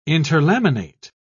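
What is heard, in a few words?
"interlaminate", English, British English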